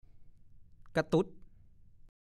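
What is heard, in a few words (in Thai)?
"กะตุ๊ด" ภาษาโคราช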